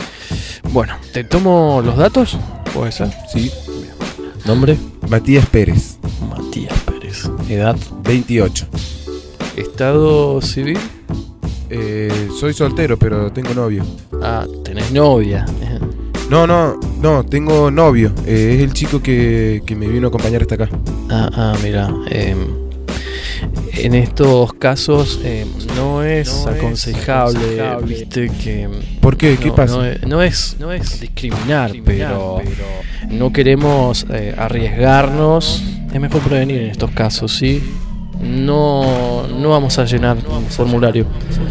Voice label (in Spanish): spot donación de sangre; Marcha del Orgullo 2012